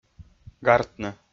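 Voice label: "гартны", Komi, male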